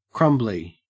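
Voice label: "crumbly", Australian English; pronunciations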